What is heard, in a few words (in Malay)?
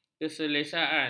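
"keselesaan", Malay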